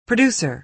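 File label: "producer", American English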